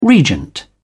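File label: "regent", British English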